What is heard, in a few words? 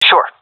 affirmation, seal fem; vo; Counter Strike Model Pack; CS:GO